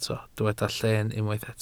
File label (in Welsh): "llen", Welsh